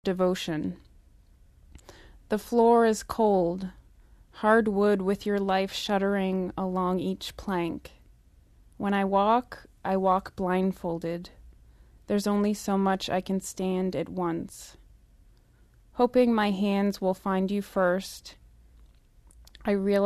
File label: poem; weekend america